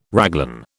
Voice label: "raglan", British

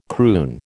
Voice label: "croon", American

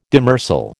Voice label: "demersal", American

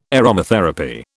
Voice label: "aromatherapy", British

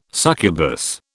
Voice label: "succubus", British

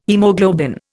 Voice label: "haemoglobin", Indian

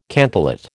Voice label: "cantillate", American

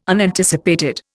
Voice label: "Unanticipated", Indian

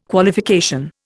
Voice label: "Qualification", Indian

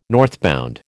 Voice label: "northbound", American

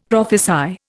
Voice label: "prophesy", Indian; Dictionary

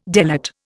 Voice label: "delate", Indian

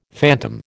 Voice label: "phantom", American

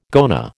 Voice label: "goanna", American

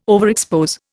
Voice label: "overexpose", Indian

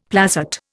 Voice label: "placet", Indian; Dictionary

